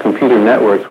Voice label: LoFi Phrases, LoFi Vocals; Vengeance Samples

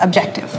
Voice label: LoFi Words, LoFi Vocals

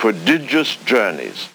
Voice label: LoFi Phrases, LoFi Vocals; Vengeance Samples

